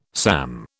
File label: "SAM", British